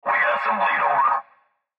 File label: Cb Trucker Radio; Radio Voice; Blastwave